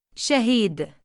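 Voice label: "shahiid", Arabic, male; شَهِيد; shahīd; シャヒード; Shahiid、Shahid、Shaheed; 殉教者、宗教や祖国のために自らを犠牲をして捧げる者；目撃者；証人; given name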